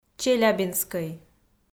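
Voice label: "челябинскӧй", Komi, female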